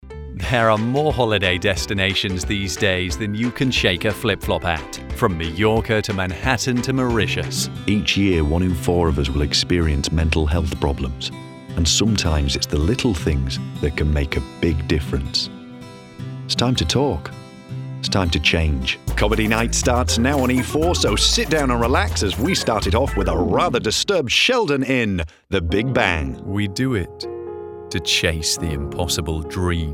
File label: Commercial